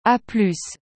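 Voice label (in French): "A plus", French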